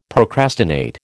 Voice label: "procrastinate", American